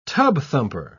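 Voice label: "tub-thumper", British English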